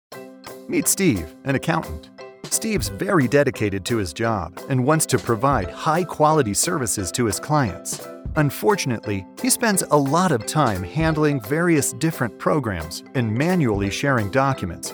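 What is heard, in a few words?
Explainer, voice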